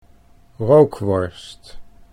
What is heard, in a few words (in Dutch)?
"rookworst", Dutch